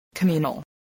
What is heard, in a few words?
"communal", voice